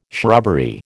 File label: "shrubbery", American